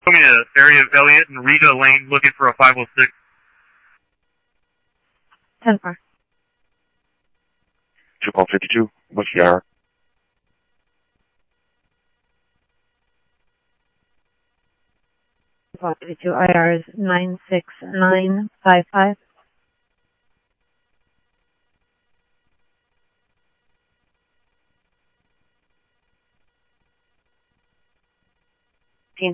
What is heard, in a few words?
Tempe Police, scanner; SimulcastF-Tempe